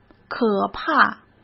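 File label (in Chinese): "可怕", Mandarin Chinese; hsk; chinese-flashcards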